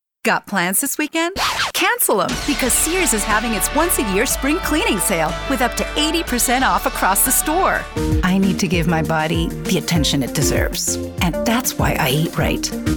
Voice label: English Foreign English Accent

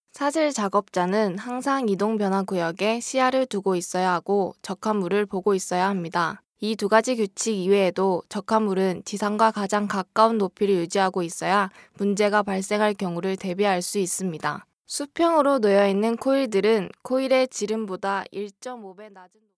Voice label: Korean Native

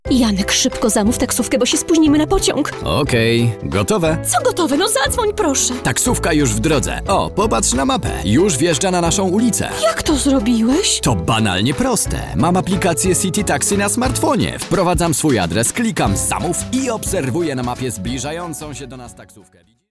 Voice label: Polish Native, Polish

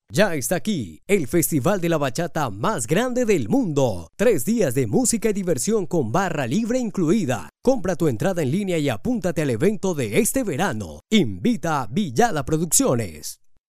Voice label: Spanish Latin

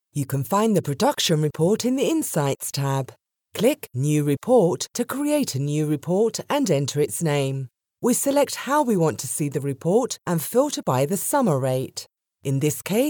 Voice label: English United Kingdom

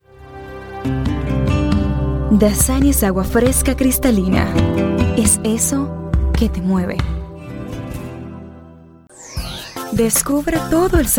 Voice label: Spanish Latin

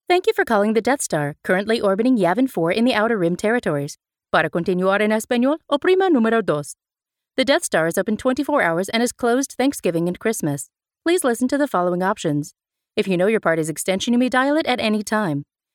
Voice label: English USA

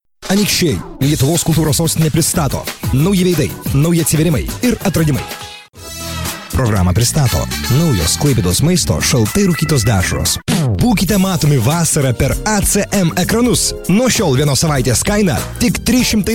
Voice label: Lithuanian Native

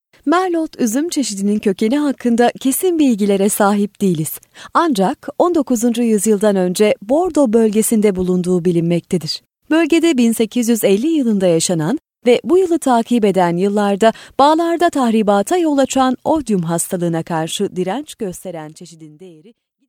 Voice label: Turkish Native

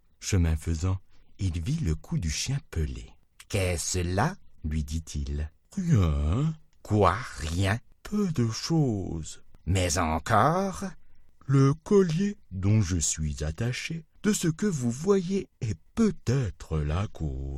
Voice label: French France

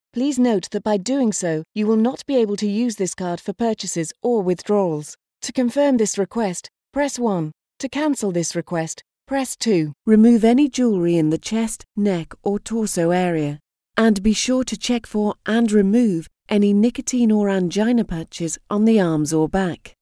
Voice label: English United Kingdom

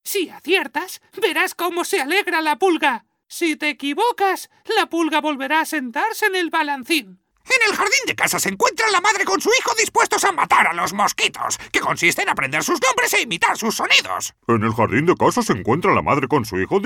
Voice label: Spanish Spain

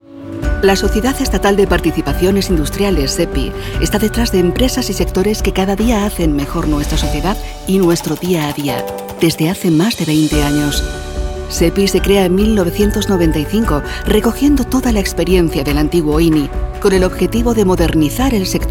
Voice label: Spanish Spain

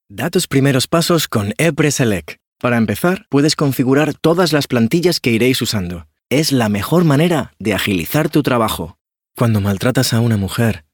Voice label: Spanish Spain

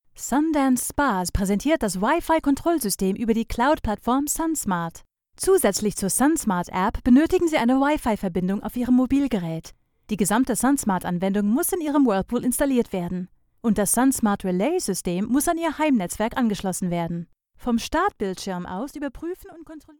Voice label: German Native

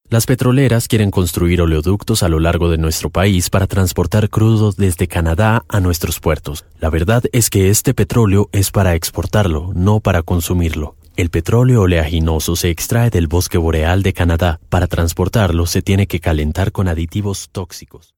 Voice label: Spanish Latin

